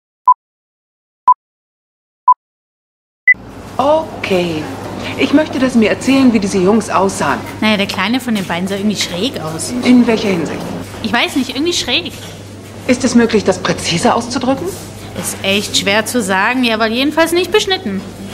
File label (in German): Frau Synchron; Fargo